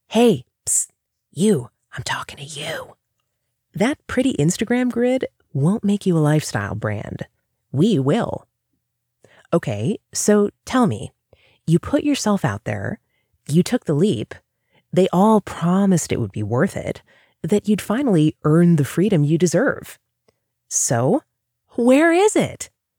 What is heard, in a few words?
eLearning; hey you